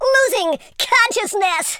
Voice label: boring, speech, Super Hero